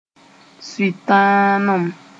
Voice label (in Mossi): "sʋɩtãanem", Moore; wickedness